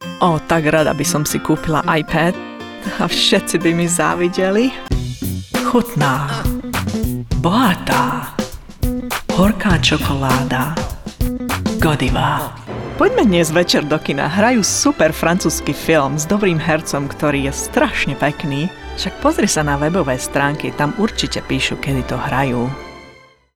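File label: slovak female commercial; voice demos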